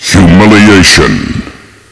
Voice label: knife, woman